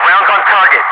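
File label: "rounds on target", English speech, Wingman; DCS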